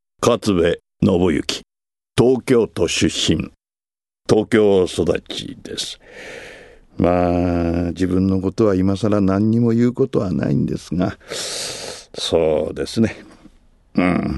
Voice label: freetalk, male voice